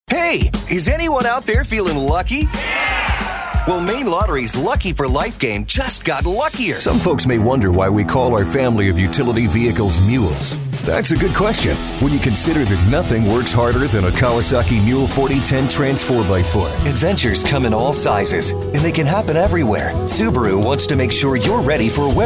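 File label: Commercial, voice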